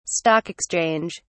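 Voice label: "Stock exchange", British English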